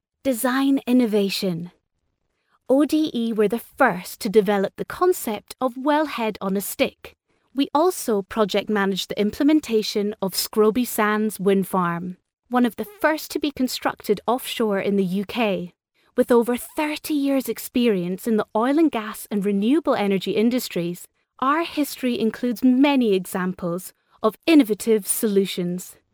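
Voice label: English British, female, english british voice over talent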